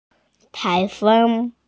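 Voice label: "tai2feng1", Mandarin Chinese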